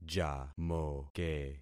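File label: "jamoke", concatenated syllables; Affixing experiment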